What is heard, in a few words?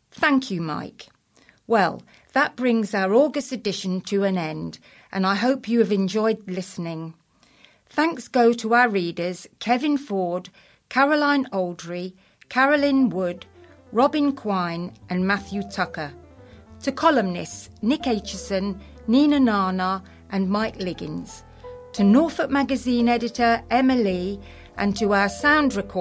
Closing Announcement; Chatterbox; Norfolk Magazine